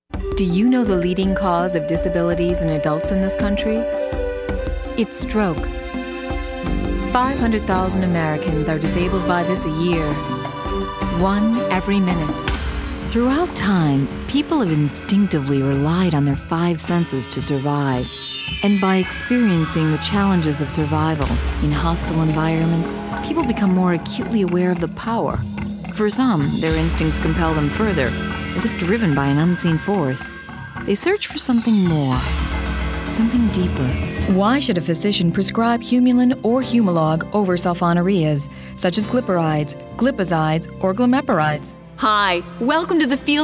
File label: narration